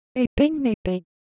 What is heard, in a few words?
Korean, Ideo Phones; Texture